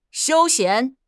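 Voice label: "xiu1 xian2", Chinese; 汉语口语新时代-初级下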